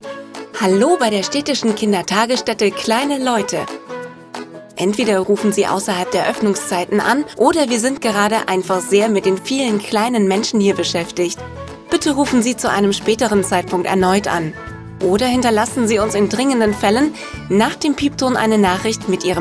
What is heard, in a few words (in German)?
Telefonansage freundlich